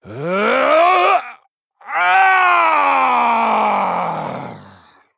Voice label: Male Human Death; Thelwater; Scabbs

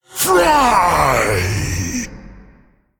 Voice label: Male Elemental Attack

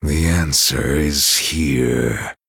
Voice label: Male Night Elf Thinking

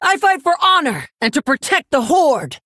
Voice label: Female Orc; Hero Rokara

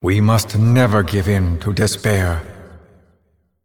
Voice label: Male Celestial Bark

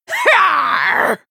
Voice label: Female Human Attack

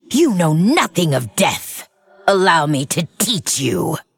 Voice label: Female Lich Play

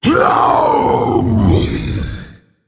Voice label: Male Dragon Death

